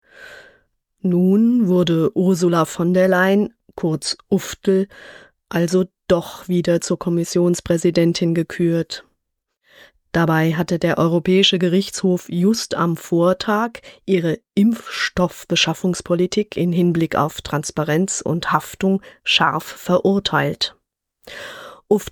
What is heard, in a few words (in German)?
Kommentar; Kontrafunk aktuell